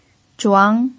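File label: "zhuang2", Mandarin Chinese pinyin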